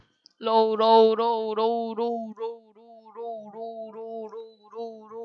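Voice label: "low", spoken word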